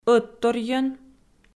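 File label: "ӧтторйӧн" Komi, female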